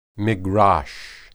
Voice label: "migrash", Hebrew